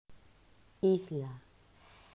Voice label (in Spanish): "Isla", pronunciacion; mausschool